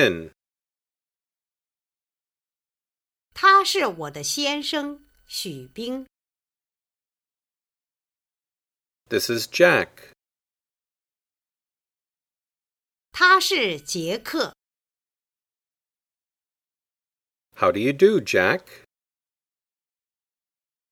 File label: Dialog